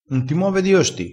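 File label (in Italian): "costi", dialettale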